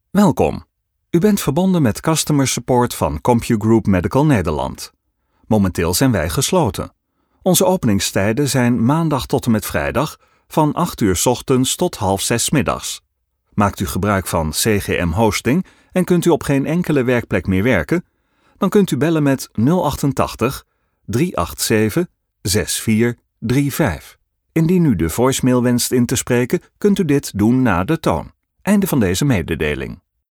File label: IVR corporate friendly